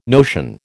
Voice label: "notion", American